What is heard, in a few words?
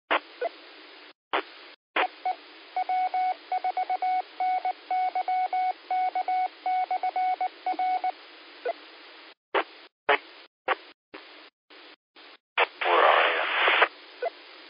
qso rec simplex logic